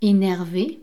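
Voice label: "enerve", French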